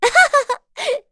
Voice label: Happy; Dosarta; kings raid; en